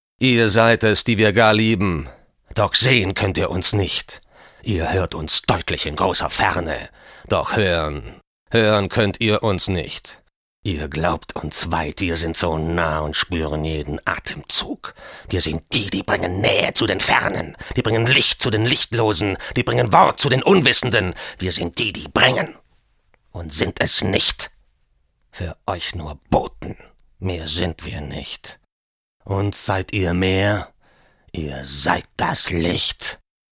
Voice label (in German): aggressiv, maenner